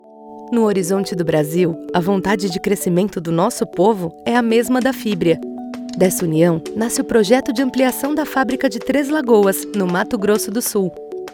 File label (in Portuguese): Institucional